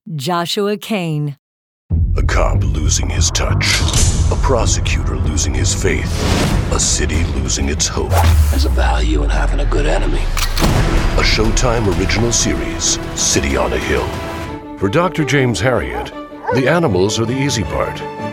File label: Network Promo Six Spots